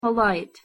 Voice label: polite; harrypotter